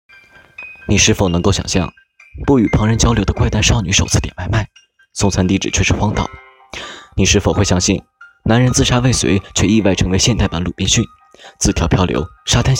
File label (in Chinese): 电影解说